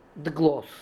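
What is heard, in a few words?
"Dgloz", pronunciations; Fourth Way; Beelzebub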